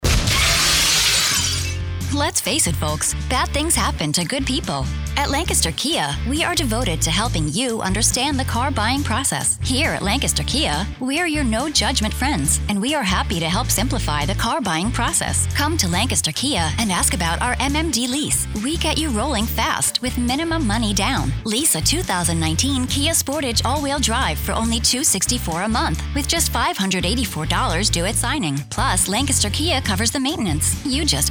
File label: Commercial